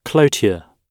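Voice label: "cloture", English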